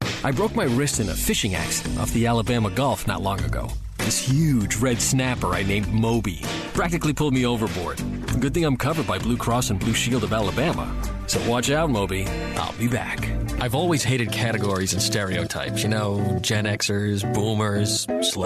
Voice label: RP